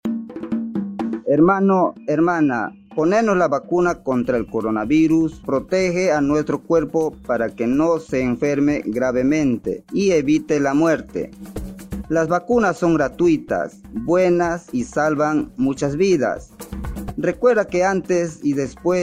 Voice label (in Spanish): castellano; localidades amazonicas; las vacunas contra el coronavirus son buenas